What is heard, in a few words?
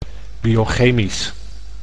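"biochemisch", Dutch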